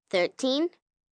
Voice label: Numbers Thirteen, 1 child, girl counting; Talking Sound Effects